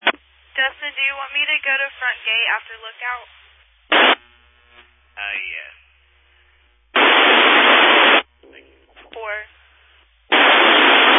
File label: Family Radio Service (FRS)